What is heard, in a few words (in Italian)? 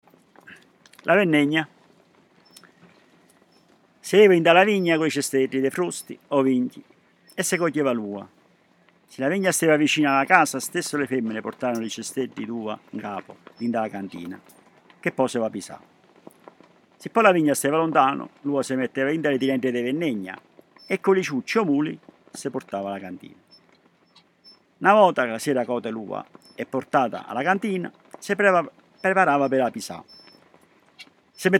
Vendemmia dialetto